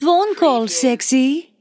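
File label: Female Phone Call Sexy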